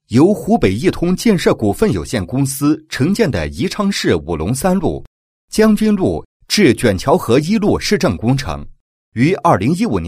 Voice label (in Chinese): 男, 工程解说; 市政工程安全文明施工解说